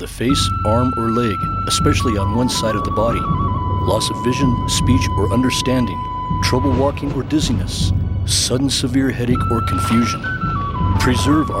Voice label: Stroke Storm Watch Radio; publichealth; Cardiovascular